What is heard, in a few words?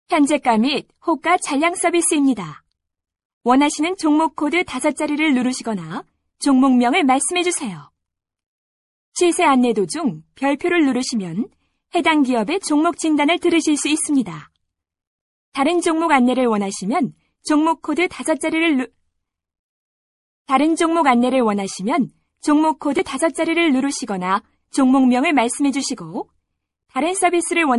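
Korean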